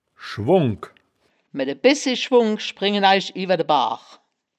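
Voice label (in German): "schwungk", Wittlicher Wörterbuch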